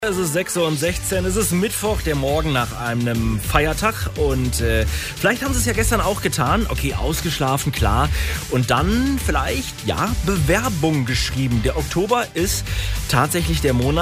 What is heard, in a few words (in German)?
Interview Radio; Essen